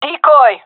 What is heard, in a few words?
throwing decoy, gendarmerie fem; vo